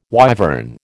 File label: "wyvern", American